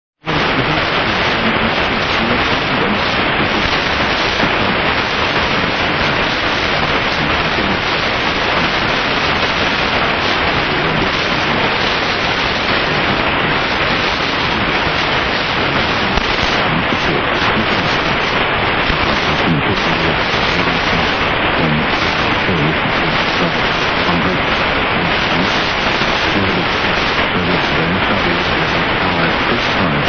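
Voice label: 11905 China National Radio; China Natl Radio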